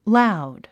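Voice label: "loud", English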